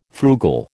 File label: "frugal", American